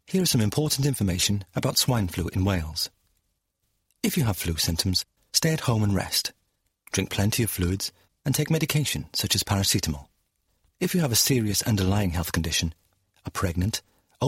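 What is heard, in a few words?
British English, Corporate; GoLocalise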